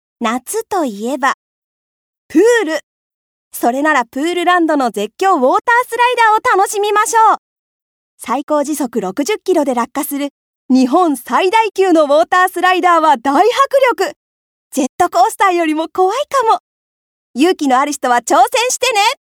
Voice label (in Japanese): プールCM